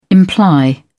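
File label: "imply", British English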